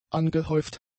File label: "angehäuft", German; adjectives